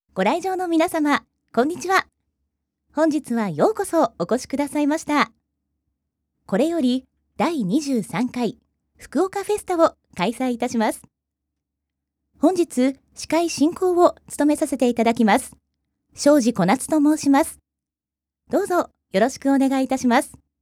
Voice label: event moderator